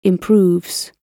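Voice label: "improves", British English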